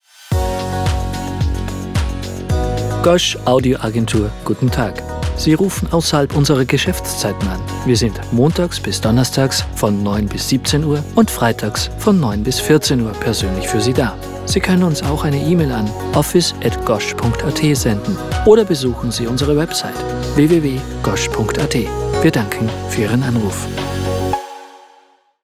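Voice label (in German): Nachtschaltung Deutsch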